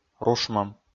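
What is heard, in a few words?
"рушмӧм", Komi, male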